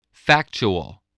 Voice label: "factual", voice